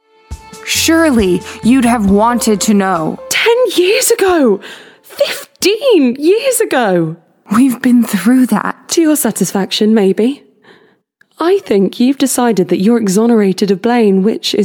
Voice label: Drama Reel

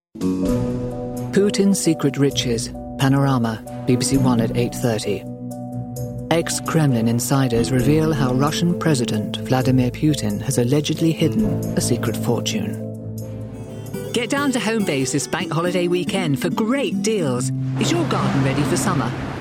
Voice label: Commercials

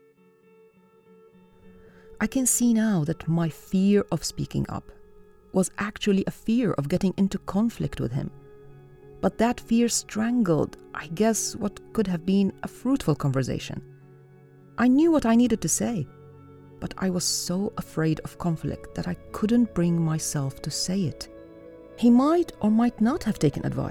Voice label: Actor role narration

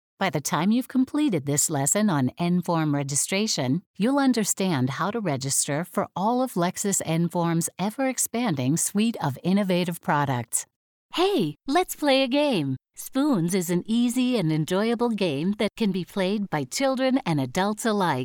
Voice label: eLearning dry

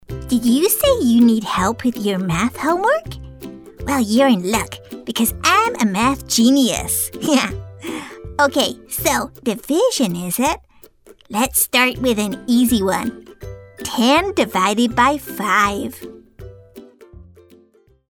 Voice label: kid math

